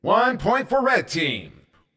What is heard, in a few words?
Point Red, CTF Announcer